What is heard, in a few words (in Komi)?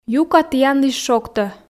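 "юка тіянлысь шогтӧ", Komi, female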